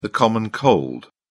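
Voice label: "common cold", British English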